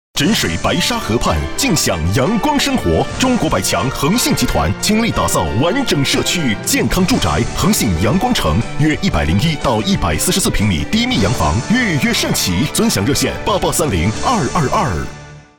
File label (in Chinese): 大气地产; 恒信阳光城